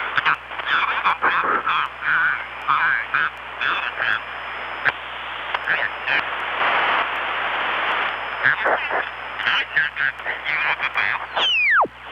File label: Radio Voice, Short Wave; Sonic Foundry; The Electronic Music Manuscript Collection; EVP Short Wave Recordings